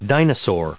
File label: "dinosaur", English; noun